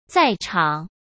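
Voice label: "在场", Mandarin Chinese; hsk; chinese-flashcards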